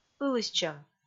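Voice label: "ылыстчӧм", Komi, female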